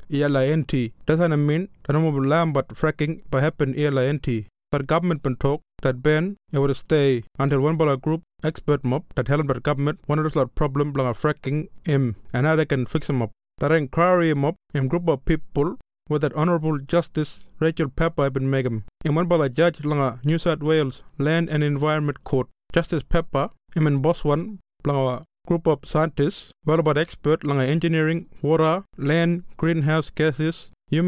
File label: KEY POINTS East Kriol; final report translations